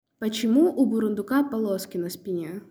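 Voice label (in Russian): русский предложение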